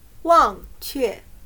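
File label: "忘却", Chinese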